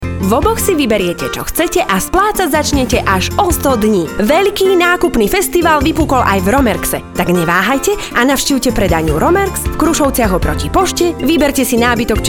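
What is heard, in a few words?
slovak female commercial; voice demos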